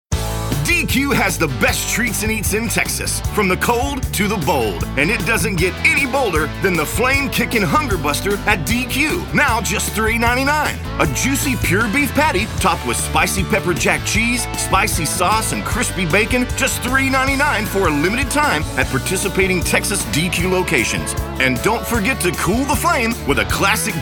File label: English Radio